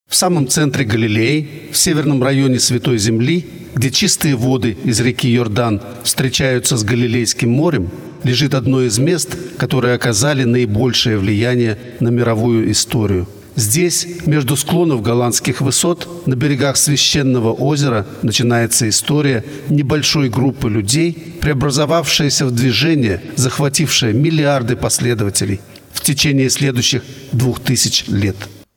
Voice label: movie dub